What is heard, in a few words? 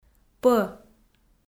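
"пӧ", Komi, female; kpv audio dict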